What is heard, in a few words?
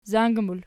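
"zang" plural, Archi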